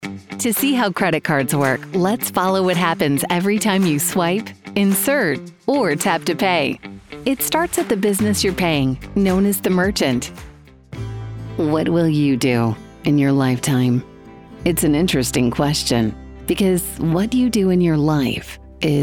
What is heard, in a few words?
Corporate, female voice actor